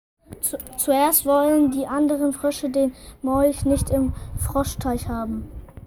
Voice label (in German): Sprachmemo